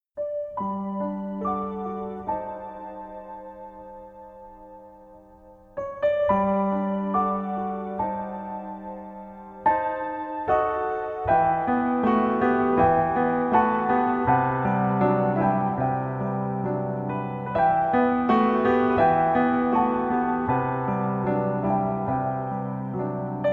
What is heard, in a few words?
Dolphin Breath Meditation